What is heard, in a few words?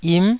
"yim9", taiwanese